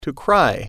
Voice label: cry

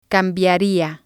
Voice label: "cambiaria", spanish

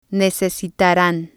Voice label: "necesitaran", spanish

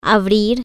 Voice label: "open" Spanish

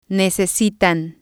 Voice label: "necesitan", spanish

